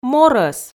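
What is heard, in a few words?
"морӧс", Komi, female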